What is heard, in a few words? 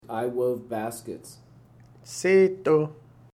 wove baskets, siletz